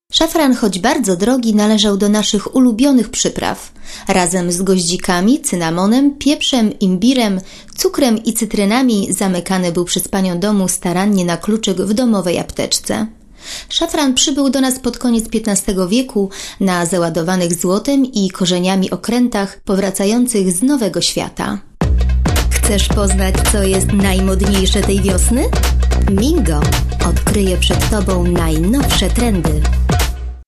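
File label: Polish; Eastern European; Male, Home Studio, 30s-50s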